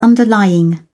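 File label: "underlying", English, British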